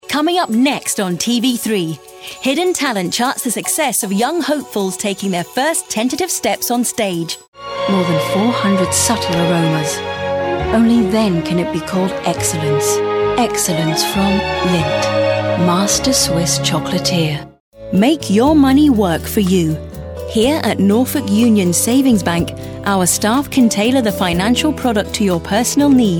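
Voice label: British English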